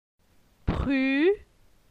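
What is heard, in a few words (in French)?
"Pru", French; la syllabe u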